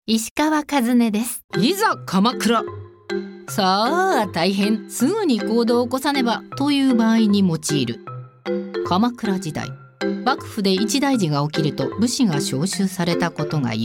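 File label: female tv program English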